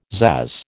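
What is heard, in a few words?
"pzazz", American English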